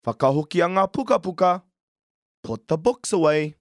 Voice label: "pukapuka", Māori

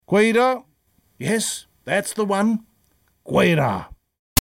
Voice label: Koirā!, Māori

